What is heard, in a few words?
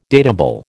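"datable", American English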